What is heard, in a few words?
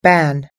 "ban", us-english